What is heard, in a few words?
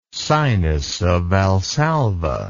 "sinus of valsalva", English